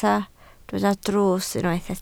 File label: "drws", Welsh